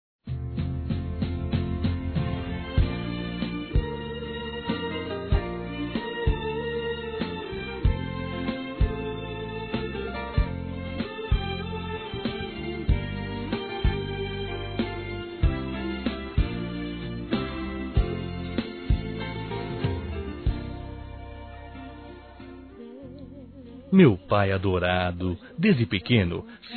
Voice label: Mensagem Para Pai Masculino

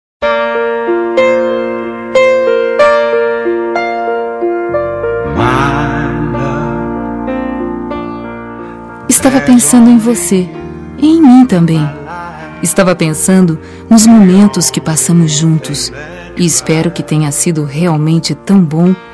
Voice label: ROM ADOREI FICAR COM VOCE FEM

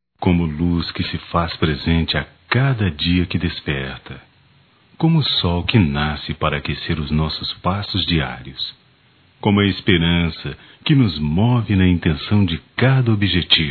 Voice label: Filho mãe distante, masc; Telemensagens 2017; Dia das Maes

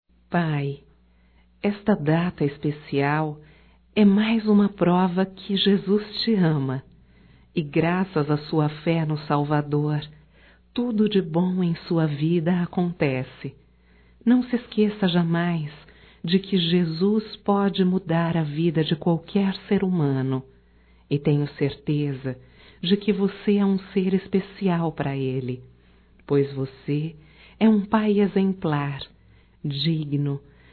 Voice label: Dia dos Pais Evangélico Feminino

